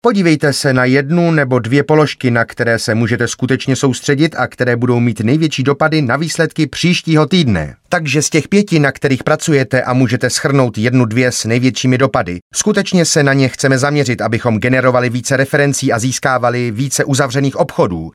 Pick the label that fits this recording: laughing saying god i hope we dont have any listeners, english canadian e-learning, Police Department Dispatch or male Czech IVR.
male Czech IVR